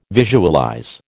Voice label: "visualize", American